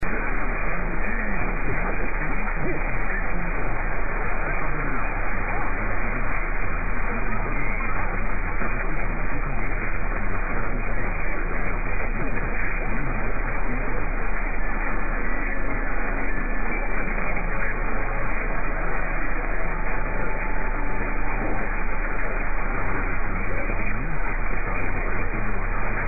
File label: 918 Radio Slovenija 1 Slovenia